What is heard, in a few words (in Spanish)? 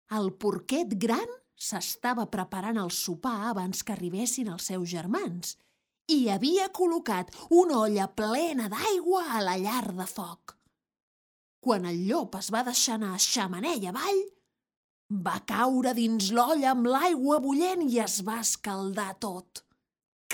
narracion catalan